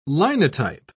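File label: "linotype", British English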